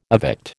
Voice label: "evict", American

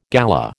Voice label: "galah", American

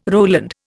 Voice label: "Roland", Indian

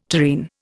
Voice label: "tureen", Indian

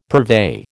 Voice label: "purvey", American

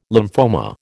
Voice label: "lymphoma", American

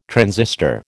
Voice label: "transistor", American

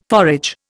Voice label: "forage", Indian

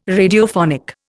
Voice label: "Radiophonic", Indian

